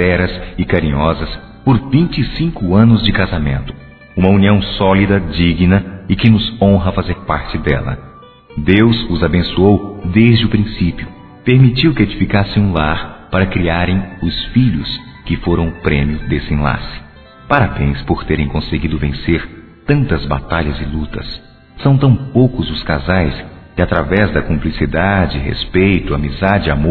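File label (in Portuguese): Bodas de Prata Masculino, Telemensagens; Bodas e Casamento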